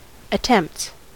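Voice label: "attempts", US English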